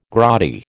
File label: "grotty", American